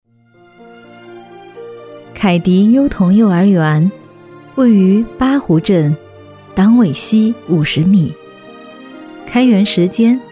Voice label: 女 专题 幼儿园

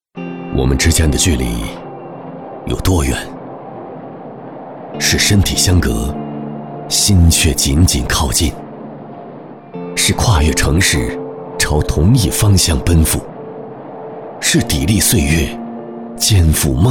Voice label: 男 宣传 磁性 抑扬 宣传旁白; AMG

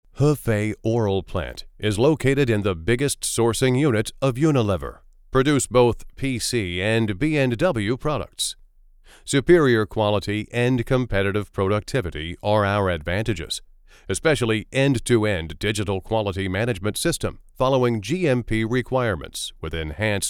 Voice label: 公司宣传片 干音

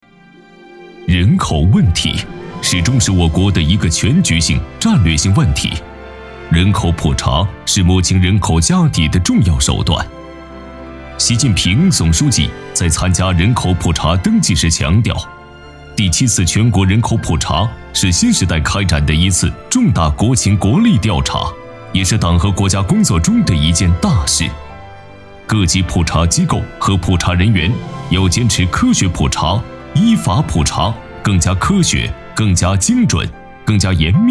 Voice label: 男 党政专题 恢弘大气; 国务院人口普查办总结片

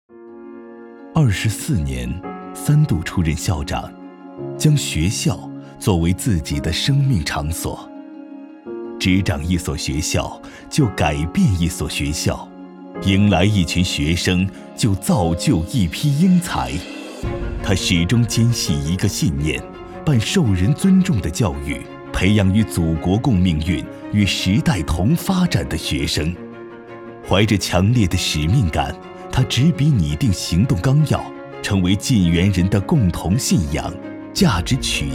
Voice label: 男 专题片 人物 校长

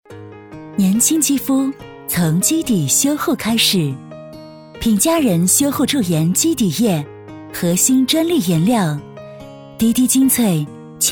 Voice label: 广告 护肤品 清新自然; 品佳护肤品

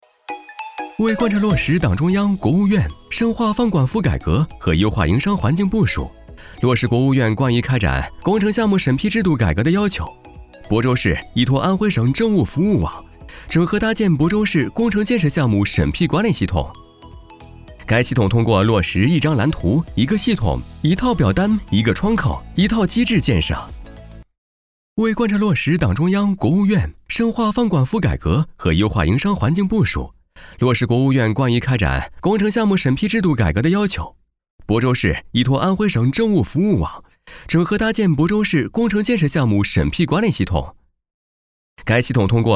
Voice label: 男 MG动画 政务说明; 飞碟; 现场会系统

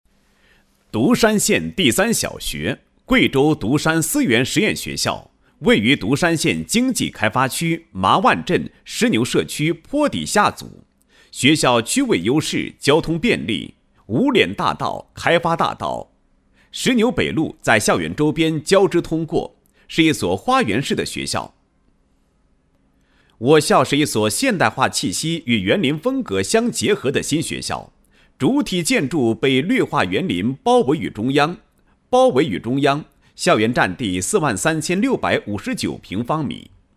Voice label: 男 宣传配音; 学校介绍; 独山县第三小学宣传配音

